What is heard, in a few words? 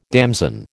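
"damson", American English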